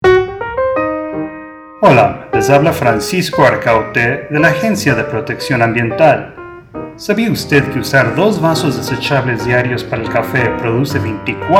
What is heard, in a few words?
spanish